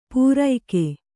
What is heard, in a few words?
"ಪೂರೈಕೆ", Kannada